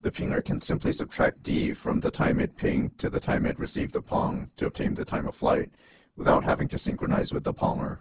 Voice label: lpc breathy